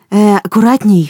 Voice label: drop weapon, human woman threat; Stalker Anomaly